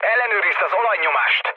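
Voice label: Hungarian damaged oil mood low, speech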